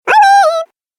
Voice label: "I Wi-In", Cartoon Game Voice; Cartoon Voice Sound Effects